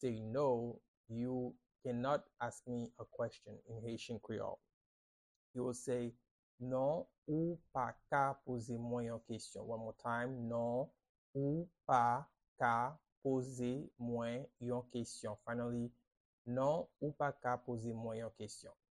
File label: No you cannot ask me a question in Haitian Creole Non ou pa ka poze mwen yon kesyon